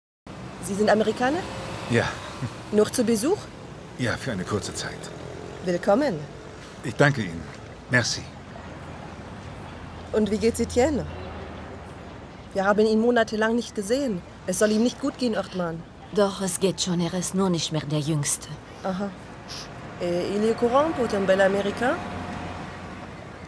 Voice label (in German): Synchronisation franz. Akzent; The Affair; Rolle Isabelle